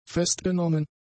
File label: "festgenommen", german; adjectives